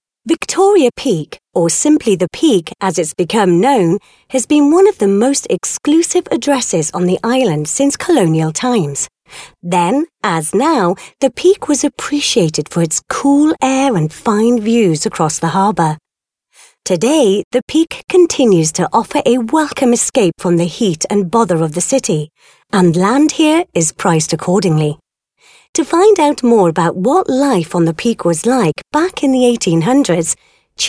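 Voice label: British female corporate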